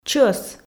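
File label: "чӧс", Komi, female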